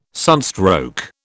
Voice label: "sunstroke", British

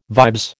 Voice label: "vibes", American

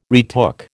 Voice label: "retook", American

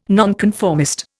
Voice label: "nonconformist", Indian

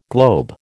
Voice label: "globe", American

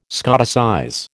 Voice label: "Scotticize", American

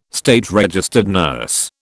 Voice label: "SRN", British

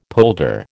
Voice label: "polder", American

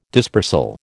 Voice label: "dispersal", American

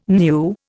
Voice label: "new", Indian

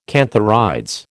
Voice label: "cantharides", American

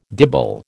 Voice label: "dibble", American

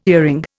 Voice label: "earring", Indian

